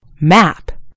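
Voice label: "map", British English; gcompris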